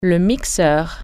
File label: blender, French